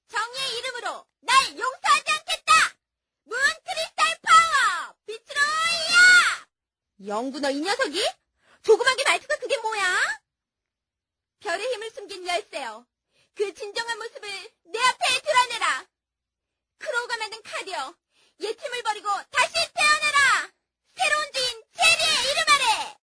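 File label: Korean female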